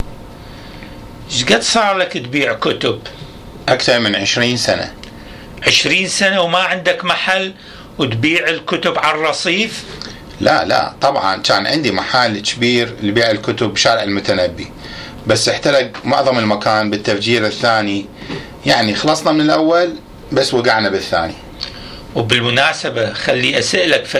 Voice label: Dialogue between American soldier book seller, Iraqi Dialect